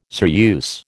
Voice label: "ceruse", American